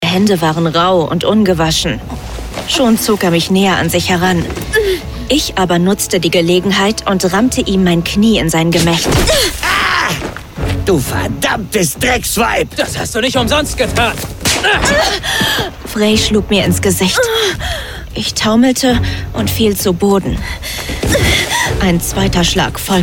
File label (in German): Hoerspiel